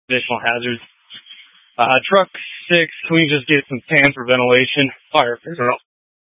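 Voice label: Incident Tac 2, Scanner audio recording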